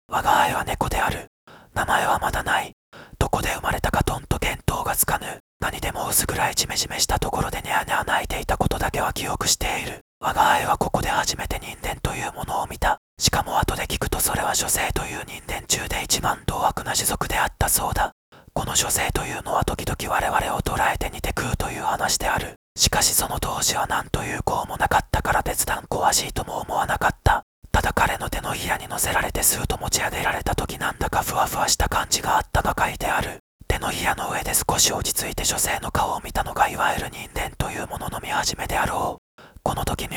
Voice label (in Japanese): ささやき